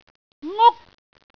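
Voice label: "nguk1", Cantonese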